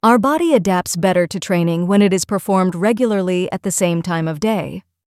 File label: us female